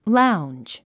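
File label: "lounge", English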